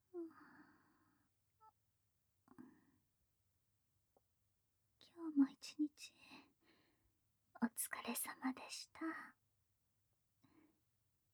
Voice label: 甘やかし ボイス; まりか; 催眠後

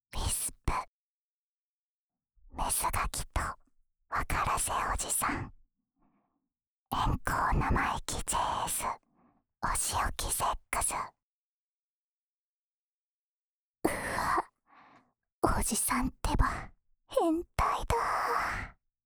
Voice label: タイトルコール; ASMR版

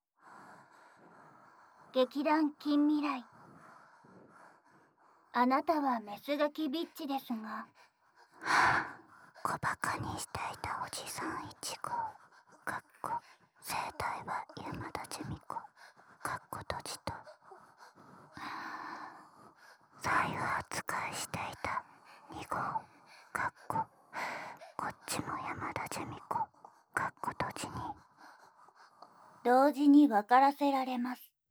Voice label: タイトルコール

